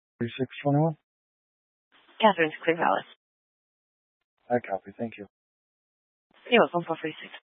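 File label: Police Dispatch, Scanner audio; Police 1 Dispatch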